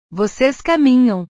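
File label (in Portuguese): "vocês caminham", Portuguese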